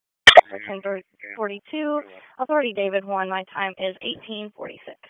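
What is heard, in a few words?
Police Southwest District Dispatch; Raleigh; wake; p25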